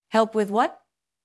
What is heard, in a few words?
Voice Acting es